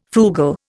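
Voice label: "frugal", Indian English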